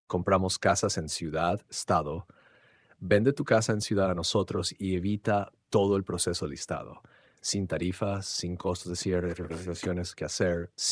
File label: Spanish